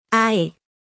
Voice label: Phoneme Female; Commonscript; Lynguistykon